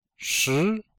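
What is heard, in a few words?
"shi2", Chinese; Cours-de-Chinois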